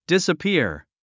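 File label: "disappear", English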